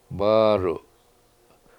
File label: "bAAdu7", Washo; Blue Elderberry